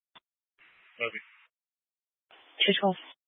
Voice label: Grand Junction Fire Department Dispatch

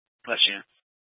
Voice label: Mesa County Sheriff Dispatch

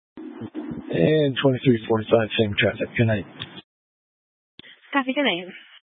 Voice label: Sheriff Dispatch; Mesa County

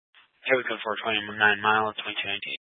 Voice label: Sheriff Dispatch; Mesa County

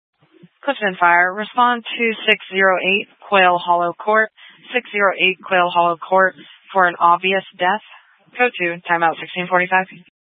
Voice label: Fire Dispatch, scanner

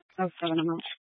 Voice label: Police 1 Dispatch

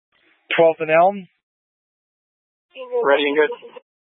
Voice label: Tactical Incidents, scanner

